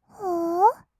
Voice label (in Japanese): "ほー？", kawaii voice; 1200 kawaii voice!!; 色々なワンショット